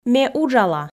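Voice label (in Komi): Komi, ме уджала, female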